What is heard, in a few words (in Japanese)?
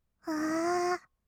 "あー", kawaii voice; ASMR; 色々なワンショット; 1200 kawaii voice!!